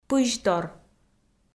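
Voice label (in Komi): "пызьтор", Komi, female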